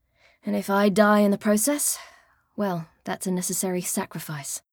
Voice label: Female; Skyrim Special Edition; Dawnguard Beleval